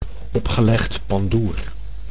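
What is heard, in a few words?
"opgelegd pandoer", Dutch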